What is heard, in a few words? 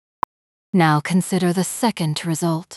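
speaker female slow low, second result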